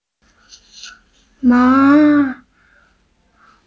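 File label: "men2", Mandarin Chinese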